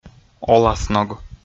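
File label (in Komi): "оласног", Komi, male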